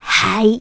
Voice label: Grandma "Hi"; Link's Grandma: "Hi!"